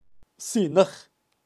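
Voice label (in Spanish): "sinaj", kaqchikel, pronunciacion de animales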